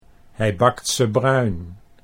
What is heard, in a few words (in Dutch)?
"hij bakt ze bruin", Dutch